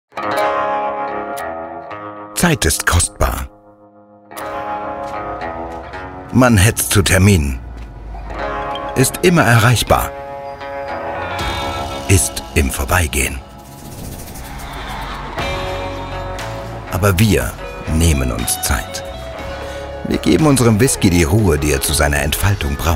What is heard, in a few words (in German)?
Werbung; Whiskey